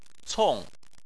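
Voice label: "cong" fourth tone, Chinese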